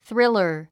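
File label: "thriller", English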